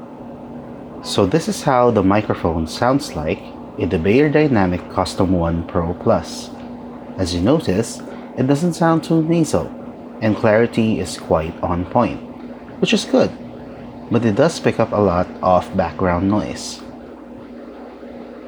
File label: beyerdynamic custom one pro plus voice